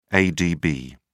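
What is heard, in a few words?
"adb", English, British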